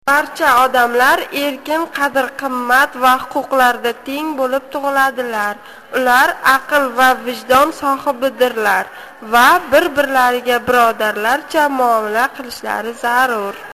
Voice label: udhr uz